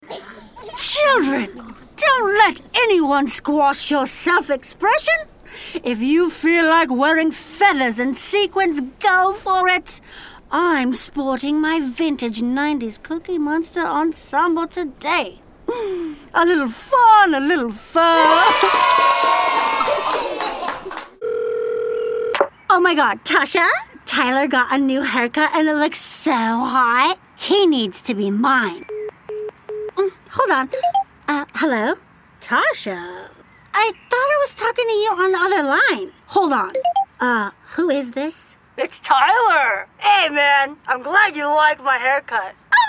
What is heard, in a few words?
Animation